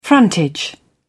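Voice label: "frontage", British English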